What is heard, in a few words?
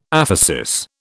"aphesis", British